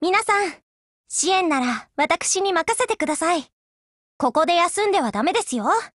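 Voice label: BLACK ACTION Japanese, Voice; GF Alarm; FAMAS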